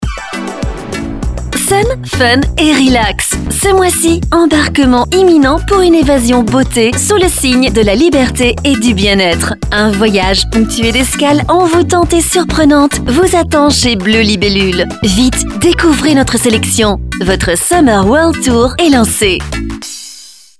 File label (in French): VOIX FEM